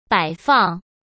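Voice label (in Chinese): "摆放", Mandarin Chinese; hsk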